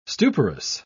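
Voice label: "stuporous", English, uk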